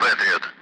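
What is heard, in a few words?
Speech Sound Russian Common Allied Flight; AirDefence; Patriot; DCS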